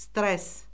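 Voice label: "stress", Azerbaijani; Orfoqrafiya Lüğəti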